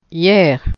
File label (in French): "hier", phonetique